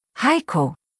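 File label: "Heiko", German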